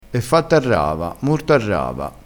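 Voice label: "a rava", Italian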